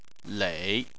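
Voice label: "lei", Chinese, third tone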